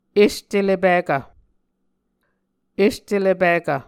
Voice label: "est ele pikv", Muscogee